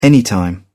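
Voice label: "anytime", British English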